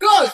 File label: "good", Voice; expletives